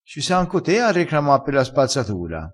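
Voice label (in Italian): "recrama", vocabolario dialettale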